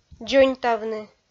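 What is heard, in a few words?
"дзоньтавны", Komi, female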